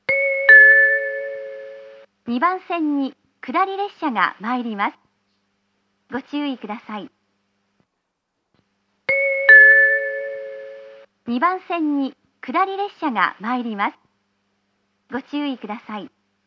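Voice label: platform 2 down approaching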